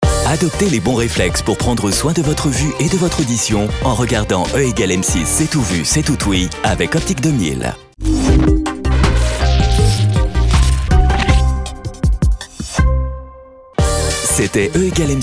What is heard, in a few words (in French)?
tonique bienveillant, voix off parrainage; OPTIC 2000